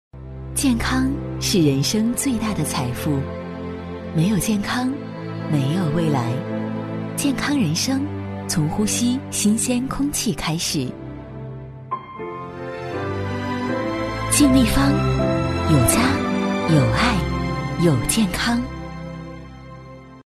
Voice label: 女 品牌广告; 质感磁性; 净立方-广东卫视广告

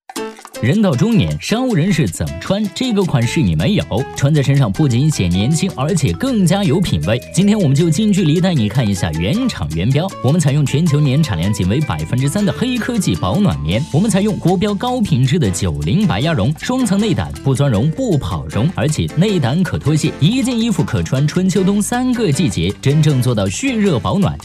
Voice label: 男, 带货, 声音百变 轻松活泼; 抖音带货

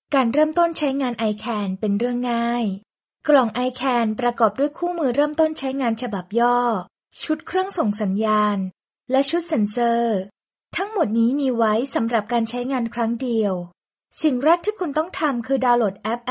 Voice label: 泰语女声

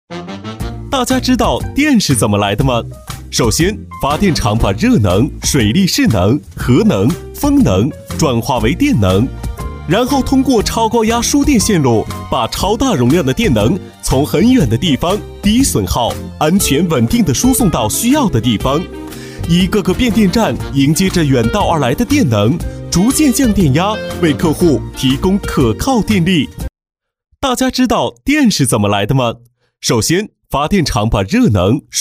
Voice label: 男 MG科普 年轻轻松, 年轻气质 轻松活泼; 电是怎么来的; 男D2-MG科普《电是怎么来的》-年轻轻松

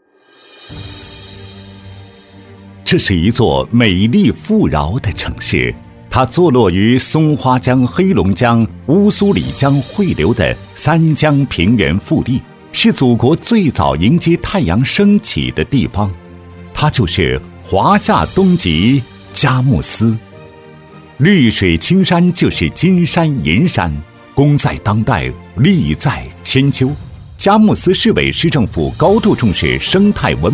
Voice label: 男, 城市宣传片, 大气; 男37-大气稳重 大气浑厚; 佳木斯

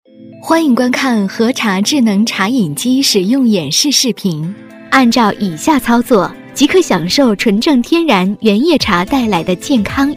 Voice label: 女-PPT多媒体【产品演示】; 和茶演示; 温柔知性

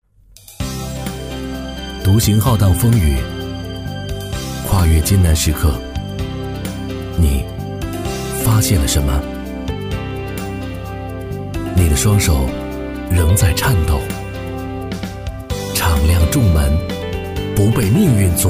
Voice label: 男 招生广告 阳光积极; 男B3-磁性稳重; 轻松活泼; 《华南师范招生》

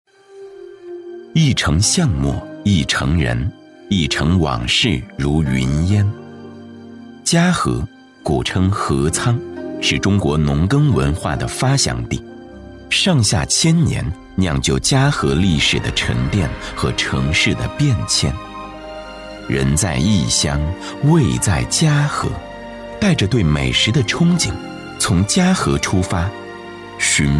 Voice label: 男 专题片 古风大气; 嘉禾

